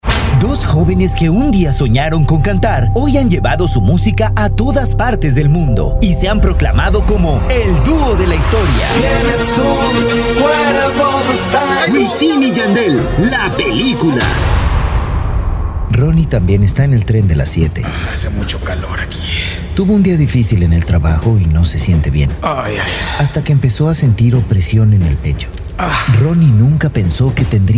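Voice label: Commercial Latin Spanish M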